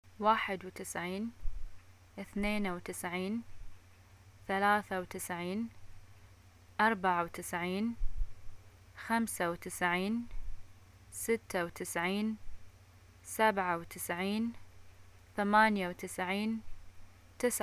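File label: numbers 1 10, Bahraini arabic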